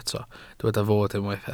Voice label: "fod", Welsh

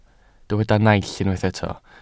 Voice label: "naill", welsh

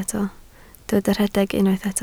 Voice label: "rhedeg", Welsh

